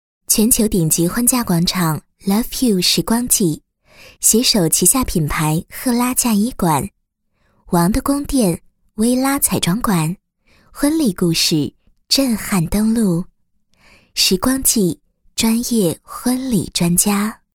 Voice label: 国语女声配音 广告 性感 诱人; 婚嫁; 全球顶级婚嫁广场love you时光纪

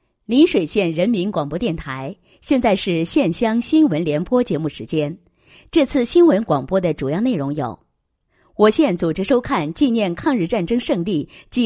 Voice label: 女 新闻; 邻水县乡新闻联播

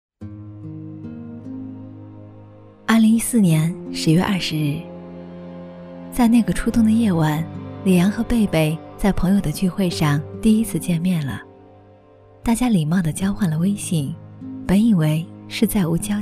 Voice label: 女 旁白 磁性 端庄 成熟 抒情; 爱的旅程

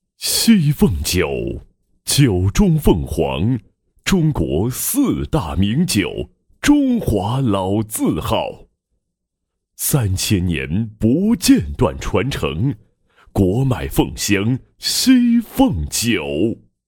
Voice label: 男, 广告 宣传片 圣诞PARTY 广告配音; 西凤之夜

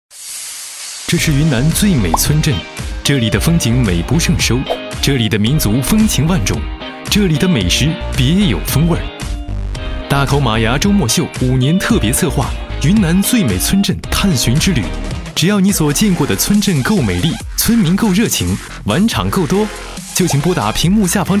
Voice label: 男 宣传片 最美村镇

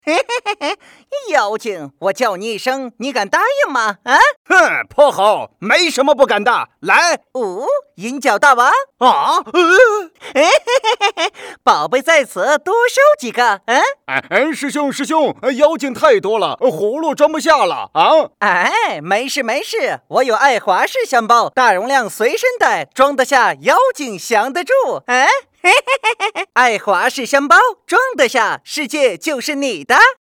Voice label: 男; 西游爱华仕箱包成品

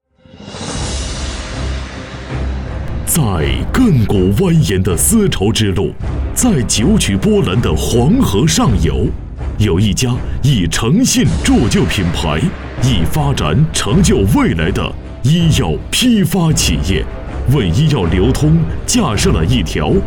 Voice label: 男 宣传片 药业宣传配音; 兰州西城药业

